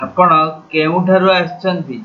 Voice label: where from odia

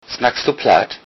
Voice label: do you speak, low saxon; Snackst (du) Platt?

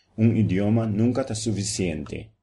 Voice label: one language papiamento

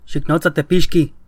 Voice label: police nahuatl

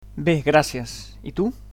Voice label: "fine", Catalan

